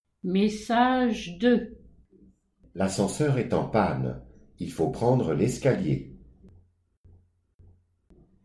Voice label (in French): message ascenseur